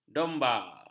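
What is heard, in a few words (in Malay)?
"domba", Malay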